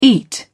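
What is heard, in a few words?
"eat", British English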